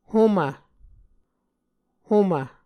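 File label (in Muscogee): "homv", Muscogee word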